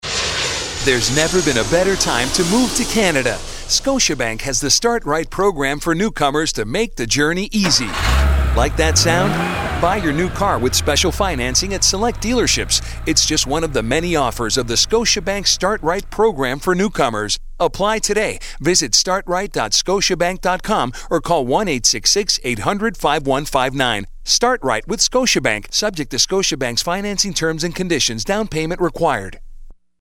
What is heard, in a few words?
male; 680 News; scotiabank on the move